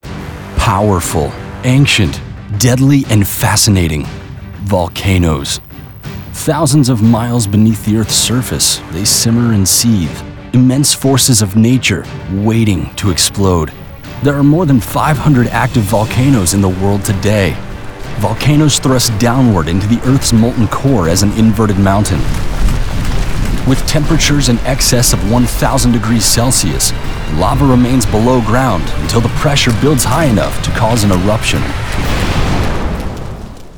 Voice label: E LEARNING